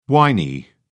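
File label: "whiny", British English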